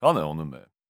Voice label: neutral short